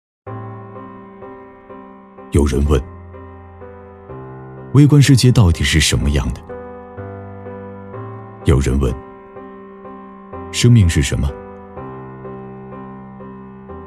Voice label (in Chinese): 男 走心宣传 质感走心; 国仪量子; 质感磁性